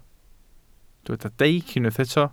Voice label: "deic", welsh